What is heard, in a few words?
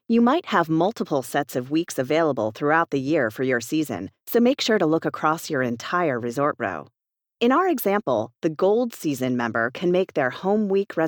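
English US female eLearning; voice over; american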